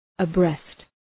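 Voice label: "abreast", English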